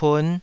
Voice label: "pun5", taiwanese